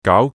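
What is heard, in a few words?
"gaau2", Cantonese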